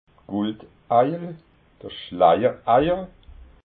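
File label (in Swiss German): "guldeiel", Alsatian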